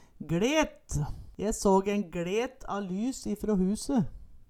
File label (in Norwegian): "gLet", Numedalsmål; Je såg en gLet a lys i frå huse